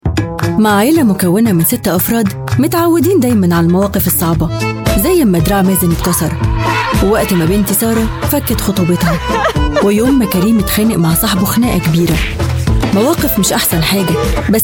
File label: arabic voice over female commercial